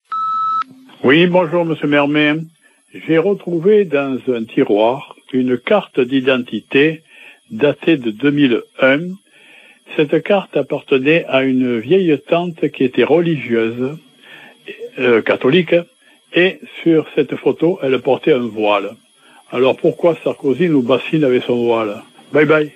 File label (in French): répondeur; Le voile